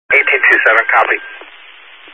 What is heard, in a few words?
Highway Patrol, Phoenix Metro East Area, scanner